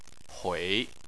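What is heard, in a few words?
"hui", Chinese, third tone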